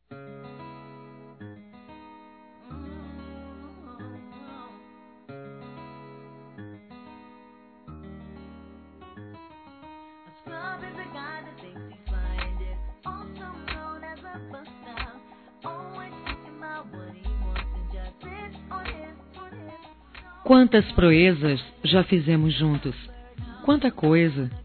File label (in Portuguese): Aniversário Primo Feminino